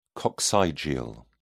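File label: "coccygeal", British English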